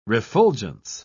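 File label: "refulgence", British English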